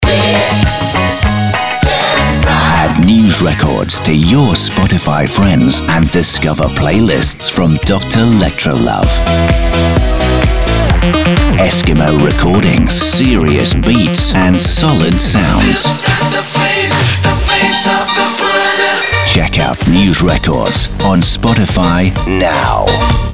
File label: news promo uk; spotify